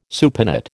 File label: "supinate", American